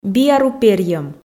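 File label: "биару перйӧм", Komi, female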